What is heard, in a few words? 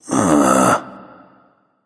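"seriously", male; baronsamedi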